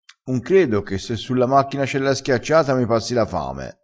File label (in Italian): "levassi la sete", crespinese